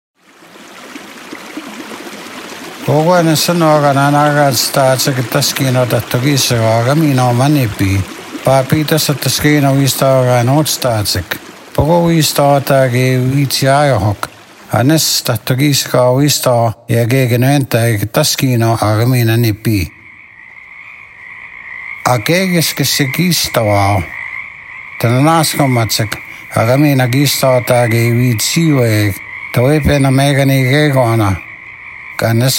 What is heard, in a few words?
Cree